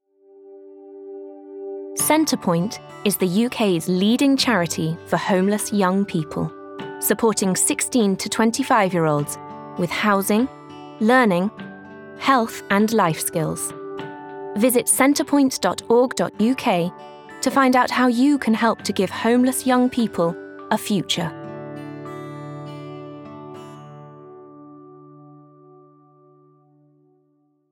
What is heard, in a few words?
Commercial; Centrepoint